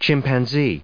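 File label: "chimpanzee", English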